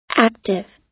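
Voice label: "active", American English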